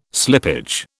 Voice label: "slippage", British